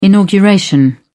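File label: "inauguration", British English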